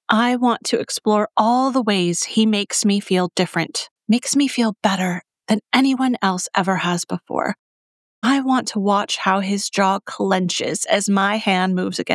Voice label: Contemporary FM Dialogue Sultry Steamy